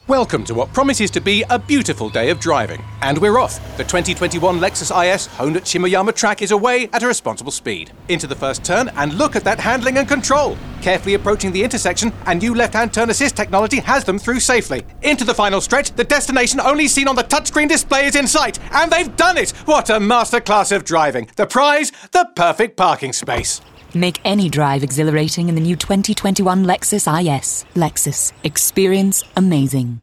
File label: english british automotive